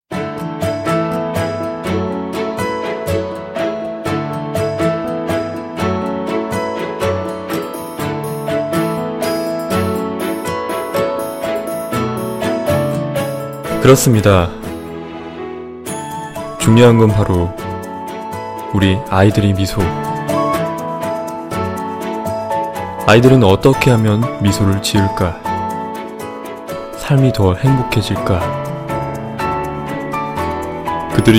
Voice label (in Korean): 경기도 교육청 홍보영상 내레이션